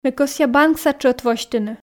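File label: Komi sentences, female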